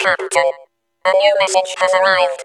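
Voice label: Radio message voice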